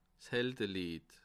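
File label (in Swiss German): "heldelied", Bas Rhin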